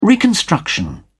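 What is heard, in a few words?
"reconstruction", British English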